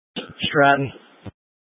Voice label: Incident Tac 3, scanner